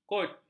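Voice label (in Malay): "kod", Malay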